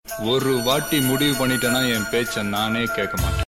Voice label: Dialog; Pokkiri